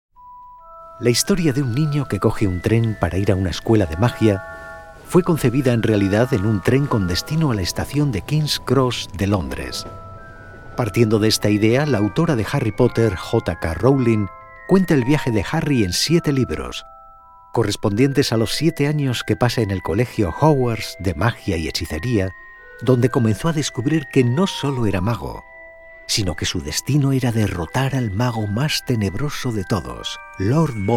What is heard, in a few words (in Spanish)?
Spanish